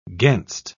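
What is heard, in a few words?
"gainst", British English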